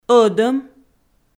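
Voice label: "ӧдӧм", Komi-Zyrian, female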